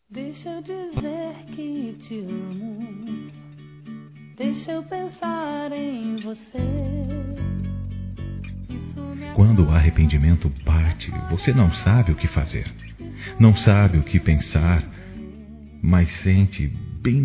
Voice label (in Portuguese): DESC. ROMANTICA, Desculpas, masc; Telemensagens 2017